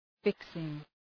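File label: "fixing", English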